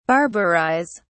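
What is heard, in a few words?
"barbarise", British English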